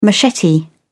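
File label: "machete", British English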